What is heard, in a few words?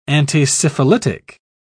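"antisyphilitic", British English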